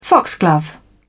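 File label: "foxglove", English, British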